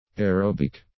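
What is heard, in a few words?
"aerobic", English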